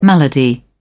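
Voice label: "malady", British English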